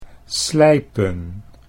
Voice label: "slijpen", Dutch

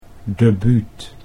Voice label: "debuut", Dutch

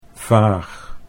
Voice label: "vaag", Dutch

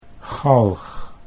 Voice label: "galg", Dutch

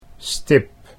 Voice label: "stip", Dutch

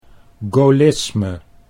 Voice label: "gaullisme", Dutch

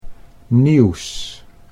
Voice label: "nieuws", Dutch

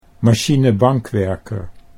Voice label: "machinebankwerker", Dutch

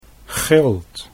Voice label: "gilt", Dutch

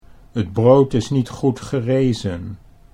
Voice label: "het brood is niet goed gerezen", Dutch

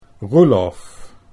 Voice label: "Roelof" Dutch

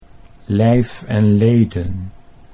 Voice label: "lijf en leden", Dutch

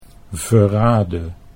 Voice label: "verraadde", Dutch

